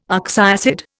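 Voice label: "oxyacid", Indian English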